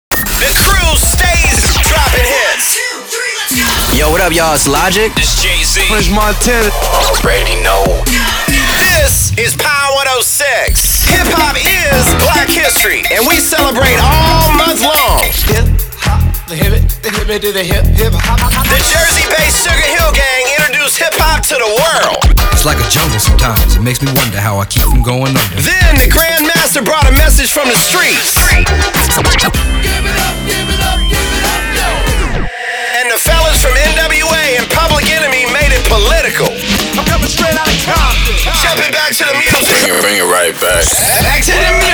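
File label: Rhythmic Urban Imaging voice over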